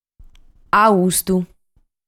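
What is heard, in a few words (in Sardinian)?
"Austu", Sardinian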